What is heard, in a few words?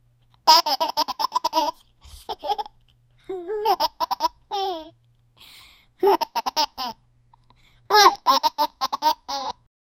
a little boy 5 year; child